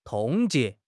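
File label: "冻结", Chinese; HSK6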